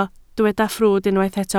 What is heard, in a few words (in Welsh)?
"ffrwd", Welsh